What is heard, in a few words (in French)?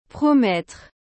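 "promettre", French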